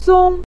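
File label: "song" neutral tone, Mandarin Chinese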